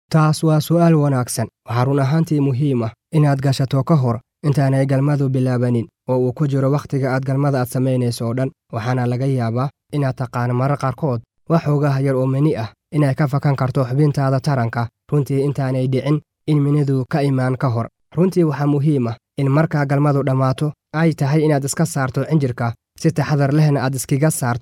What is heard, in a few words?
somali male